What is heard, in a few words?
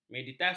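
"meditasi", Malay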